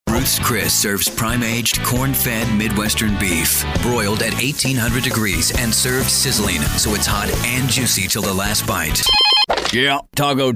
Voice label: Commercial Male; commercial voiceover